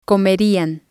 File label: "comerian", Spanish